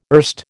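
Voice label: "erst", American English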